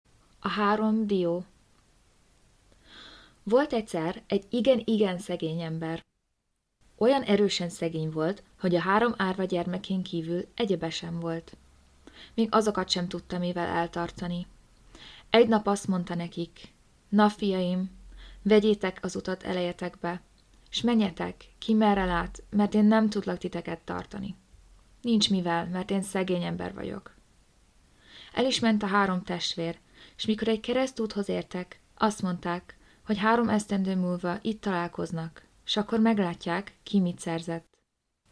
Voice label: Hungarian, Narrational; GoLocalise